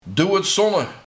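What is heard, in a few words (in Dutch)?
"Doewetzonne" Dutch dialect; Doodzonde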